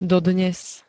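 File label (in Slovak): "dodnes", Slovak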